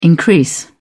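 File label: "increase", English, British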